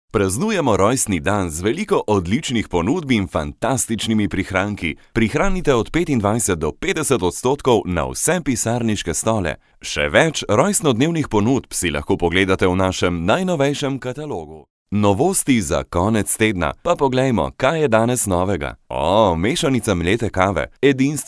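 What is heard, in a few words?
Slovenian, male; Professionelle Sprecher und Sprecherinnen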